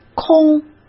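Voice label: "空", Mandarin Chinese; chinese flashcards; hsk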